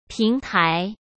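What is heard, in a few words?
"平台", Mandarin Chinese; chinese flashcards; hsk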